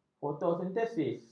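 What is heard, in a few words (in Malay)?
"fotosintesis", Malay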